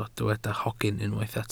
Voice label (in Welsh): "hogyn" Welsh